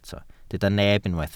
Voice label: "neb", Welsh